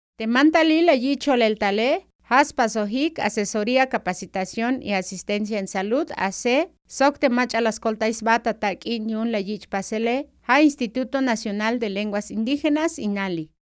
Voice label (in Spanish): Créditos Tseltal